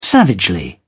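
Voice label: "savagely", English, British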